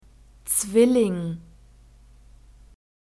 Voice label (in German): "zwilling", German; masculine